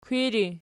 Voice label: "kwiri", Archi